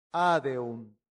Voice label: "adeunt", latin; santillana